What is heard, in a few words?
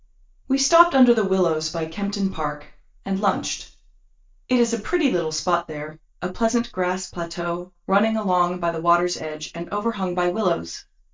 dereverb early; early reflections target; Towards Real-Time Single-Channel Speech Separation in Noisy and Reverberant Environments